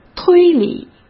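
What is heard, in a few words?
"推理", Mandarin Chinese; hsk; chinese-flashcards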